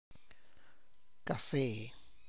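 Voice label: coffee, Tlacochahuaya Zapotec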